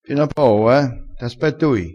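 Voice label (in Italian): "pena", dialettale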